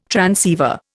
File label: "transceiver", Indian